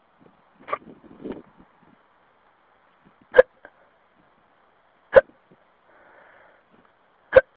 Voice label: phone msg